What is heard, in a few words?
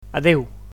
"goodbye", Catalan